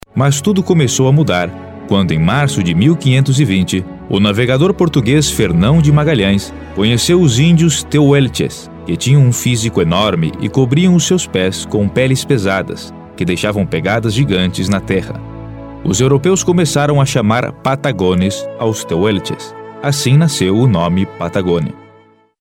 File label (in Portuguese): Portugues Brasil Masculina Adulta